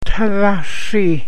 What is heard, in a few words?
"april", Gwich'in; Tadhaa srii'